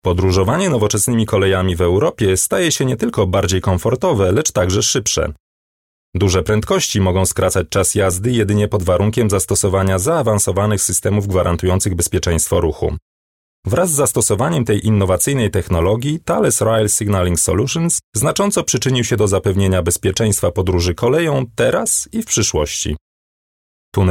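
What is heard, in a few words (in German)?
Polish Kommentar Neutral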